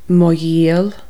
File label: my hostage, Irish, Consonants